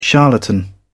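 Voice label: "charlatan", British English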